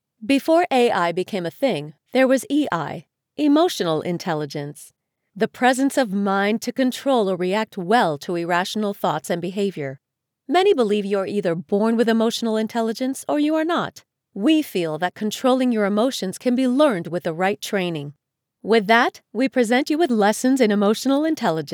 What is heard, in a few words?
Elearning; Emotional Intelligence